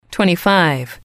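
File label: "twenty five", English, female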